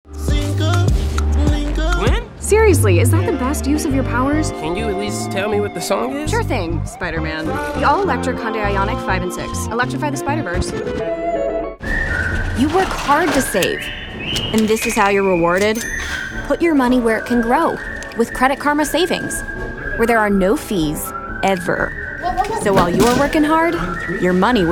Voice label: commercial voiceover reel